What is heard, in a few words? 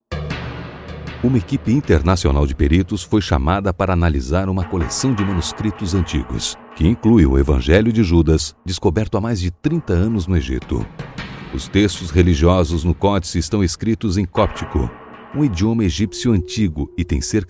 portuguese brazilian documentaries